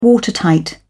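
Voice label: "watertight", British English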